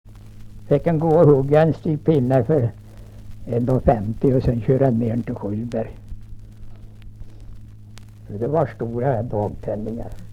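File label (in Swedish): Lerbäck Närke, dialekter; Folkminnen; Svenska sagor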